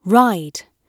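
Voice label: "ride", British English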